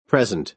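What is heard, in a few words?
"present", American English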